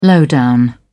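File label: "low down", British English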